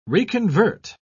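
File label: "reconvert", British English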